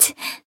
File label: damaged jp; niike